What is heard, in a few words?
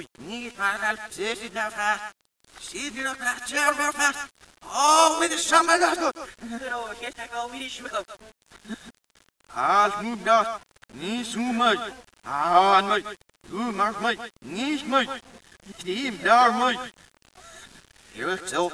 Thai Menu backwards